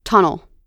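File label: "tunnel", English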